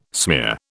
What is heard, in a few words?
"smear", British